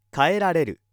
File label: male